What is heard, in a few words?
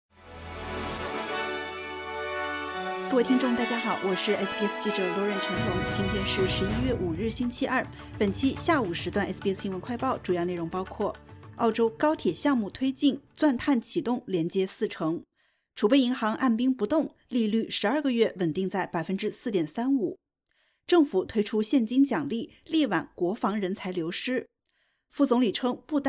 mandarin news flash; 澳洲高铁项目推进 钻探启动连接四城; SBS Chinese; SBS新闻快报